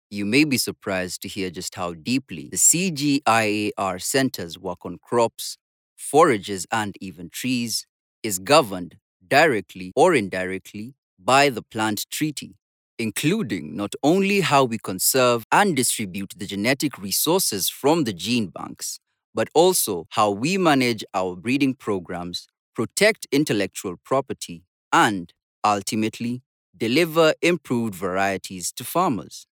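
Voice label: African English, male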